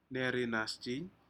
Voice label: "nerinasjin", Aneityum; shellfish